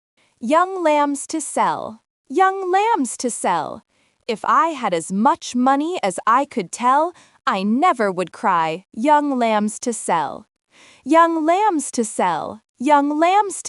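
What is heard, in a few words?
Young Lambs to Sell female us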